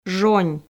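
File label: "жонь", Komi, female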